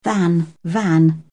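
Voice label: "van", French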